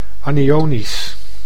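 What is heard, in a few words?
"anionisch", Dutch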